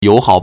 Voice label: "you3 hao3", Chinese

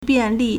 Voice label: "bian4li4", Chinese

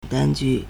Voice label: "dan1 ju4", Chinese

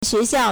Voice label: "xue2xiao4", Chinese

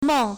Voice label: "meng4", Chinese

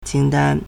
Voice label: "qing1 dan1", Chinese

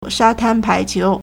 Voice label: "sha1tan1pai2qiu2", Chinese

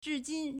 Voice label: "zhi4 jin1", Chinese

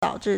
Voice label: "dao3 zhi4", Chinese

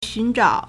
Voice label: "xun2zhao3", Chinese

